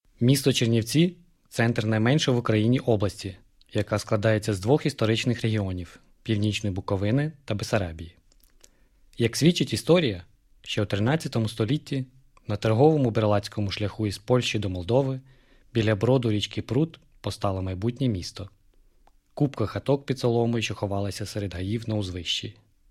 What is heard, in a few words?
Ukraine M3